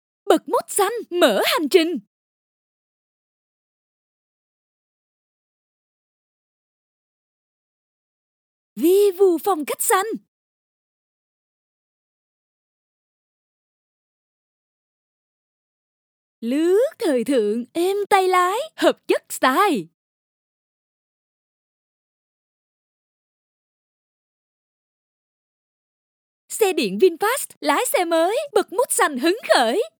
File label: vietnamese promos